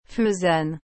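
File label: "faisane", French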